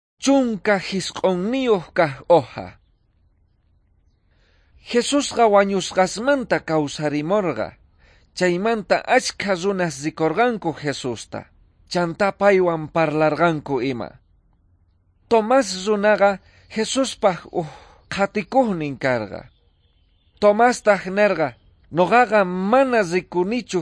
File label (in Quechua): quechua bolivian cochabamba; good news